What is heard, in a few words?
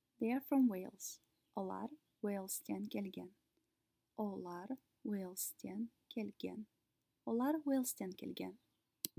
They are from Wales, kazakh